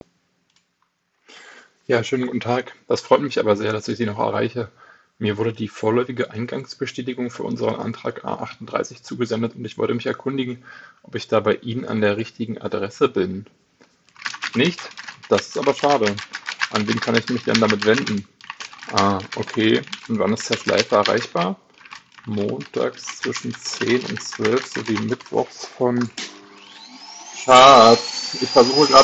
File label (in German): Testaufnahme Bluetooth; Sennheiser CX Plus TWS